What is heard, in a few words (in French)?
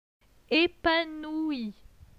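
"Epanoui", French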